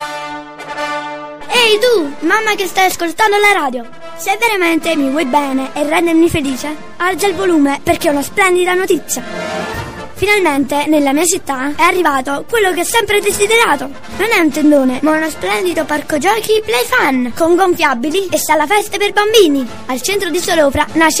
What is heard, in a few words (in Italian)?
bambino singola, voci